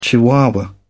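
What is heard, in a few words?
"chihuahua", British English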